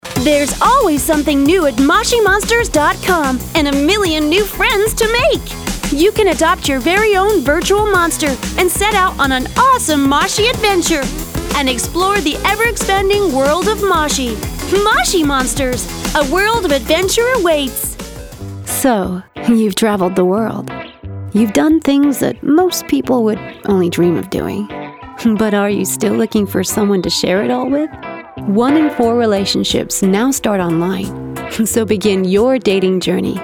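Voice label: English US Radio TV Commercial Voice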